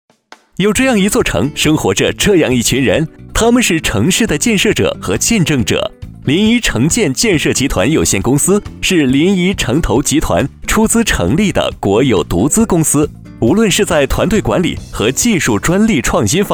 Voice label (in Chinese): 男, 活泼风格; 飞碟说